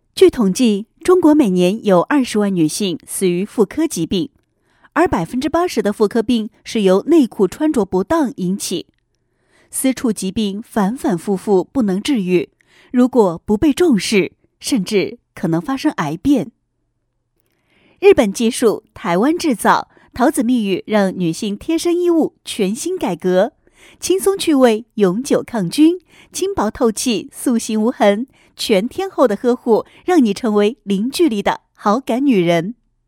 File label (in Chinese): 女, 品牌宣传; 桃子蜜语抗菌除臭内裤宣传配音